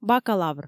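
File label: "бакалавр" Komi, female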